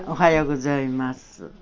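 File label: Toyama female; GSR-JD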